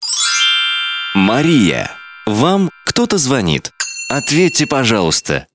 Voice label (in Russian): Женские именные звонки